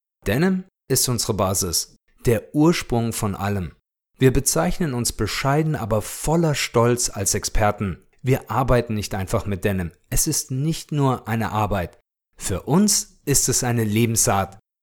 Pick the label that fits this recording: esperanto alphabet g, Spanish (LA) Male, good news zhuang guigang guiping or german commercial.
german commercial